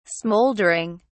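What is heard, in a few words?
"smouldering", British English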